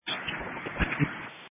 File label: Sheriff Dispatch